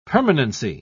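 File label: "permanency", British English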